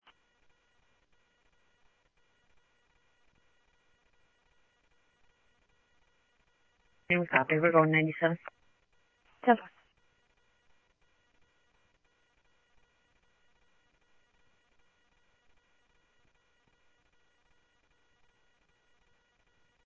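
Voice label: Mesa Police Department, scanner audio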